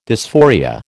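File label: "dysphoria", American English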